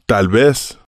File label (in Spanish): tal vez, Spanish